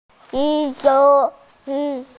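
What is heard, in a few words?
"li3 wu4", Mandarin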